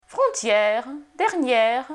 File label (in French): frontière — dernière, francais Phonetique Semi-voyelles